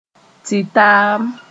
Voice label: "tɩtaam", moore

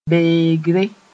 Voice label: "beegre", Mooré

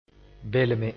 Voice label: "belme", Mooré

